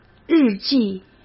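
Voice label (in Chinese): "日记", Mandarin Chinese; chinese-flashcards; hsk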